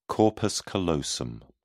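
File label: "corpus callosum", British English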